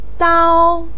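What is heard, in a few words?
"dao1", Mandarin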